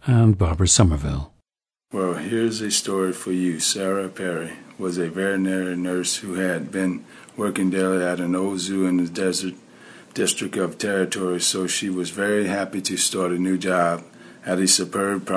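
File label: louisiana, International Dialects of English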